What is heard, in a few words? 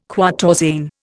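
"quatorzain", Indian English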